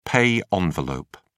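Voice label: "pay envelope", British English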